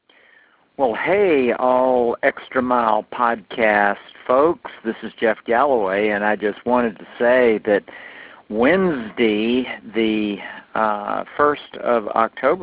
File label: Medal announcement; Jeff Galloway